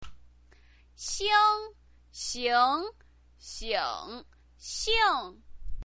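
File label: "xing", Mandarin Chinese pinyin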